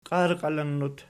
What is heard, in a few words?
"qiarqalinnut", Archi